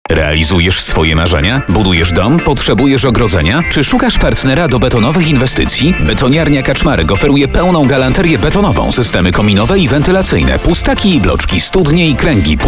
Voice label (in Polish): reklama radio